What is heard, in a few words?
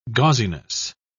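"gauziness", British English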